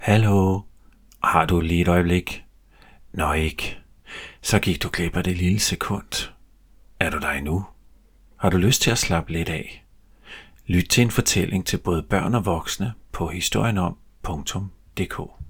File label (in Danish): Radiospot